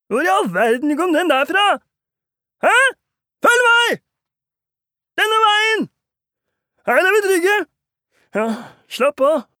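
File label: dub, Norwegian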